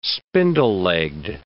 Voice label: "spindle-legged", English

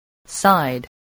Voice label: "side", spelling

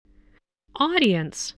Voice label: "audience", English

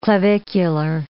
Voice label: "clavicular", English

